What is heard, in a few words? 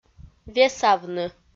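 "весавны", Komi, female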